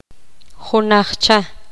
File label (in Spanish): poqomam otro